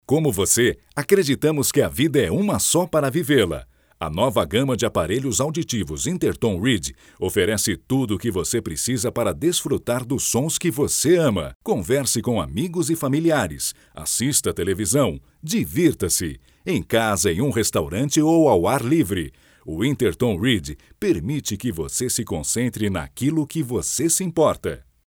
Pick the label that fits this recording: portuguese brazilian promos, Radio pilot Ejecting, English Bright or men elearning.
portuguese brazilian promos